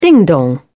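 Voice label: "ding-dong", British English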